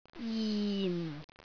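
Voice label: "jin4", Cantonese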